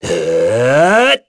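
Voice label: Vox Casting, voices heroes English; Lusikiel; kingsraid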